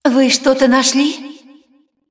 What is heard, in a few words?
cinematic voice, ru; lineage2